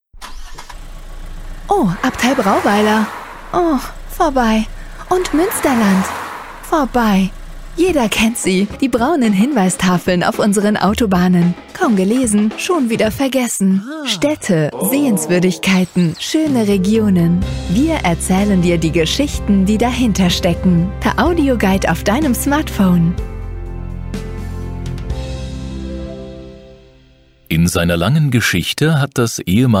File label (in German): Erlebnisguide; Maqnify